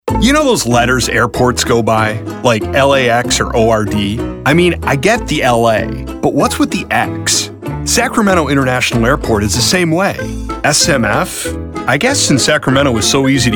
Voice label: Commercial